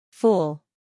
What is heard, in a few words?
"fool", English